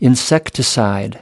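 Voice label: "insecticide", US English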